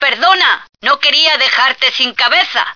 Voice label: sorry, female